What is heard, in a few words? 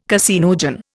"caseinogen", Indian